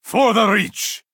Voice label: frenzied witchman attack